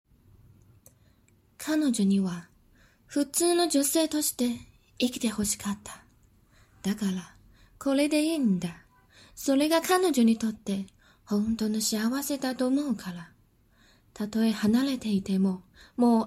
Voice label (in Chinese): 预告片; 日语-动漫配音-【紫罗兰永恒的花园】预告片